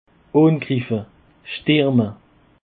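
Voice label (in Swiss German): "angriffe", Alsatian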